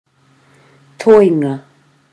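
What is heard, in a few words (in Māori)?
"toenga", Māori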